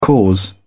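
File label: "cause", British English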